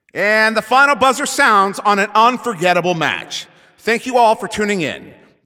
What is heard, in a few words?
Announcer Game Ends